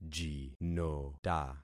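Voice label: "jinota", concatenated syllables; Affixing experiment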